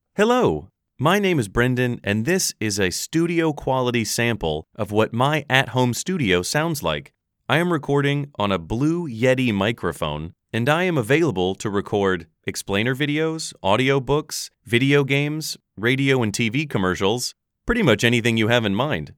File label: Studio mono